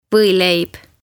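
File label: "Voileib", Estonian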